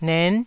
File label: "nen9", taiwanese